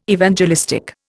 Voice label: "Evangelistic", Indian